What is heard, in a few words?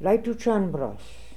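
"Leitoochanbros", pronunciations; Fourth Way; Beelzebub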